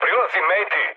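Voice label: Serbian near waypoint mood med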